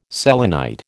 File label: "selenite", American